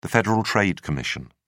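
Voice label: "federal trade commission", British English, dictionary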